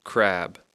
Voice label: "crab", English